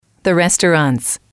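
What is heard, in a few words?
"the restaurants", English, female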